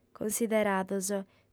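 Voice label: "cunsiderados", female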